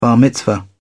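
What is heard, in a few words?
"bar mitzvah", British English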